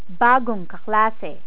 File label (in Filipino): "bagong kaklase", Tagalog